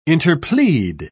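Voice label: "interplead", British English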